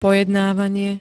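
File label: "pojednávanie", Slovak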